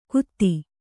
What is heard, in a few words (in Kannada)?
"ಗುದಿ", Kannada